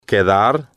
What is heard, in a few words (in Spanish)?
"quedar", Spanish